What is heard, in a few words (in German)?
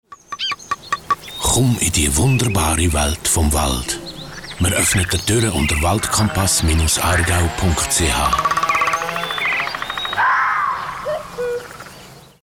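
Werbung, Swiss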